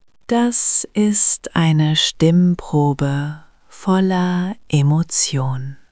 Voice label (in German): Ruhig, stimmen; moods